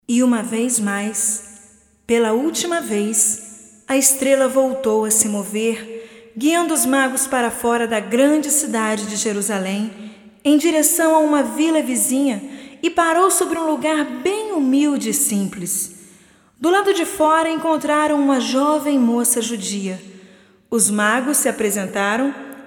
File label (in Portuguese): Narração + Cena